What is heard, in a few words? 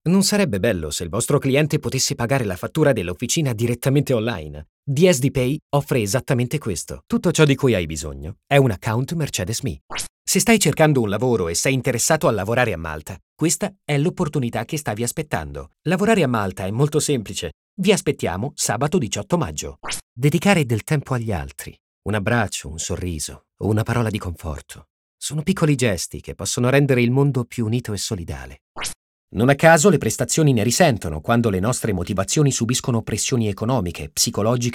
speaking voiceover mix Raw voice